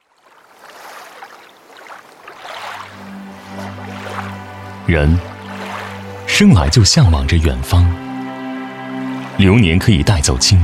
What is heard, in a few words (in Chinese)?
男 学校宣传片; 合肥职业技术学院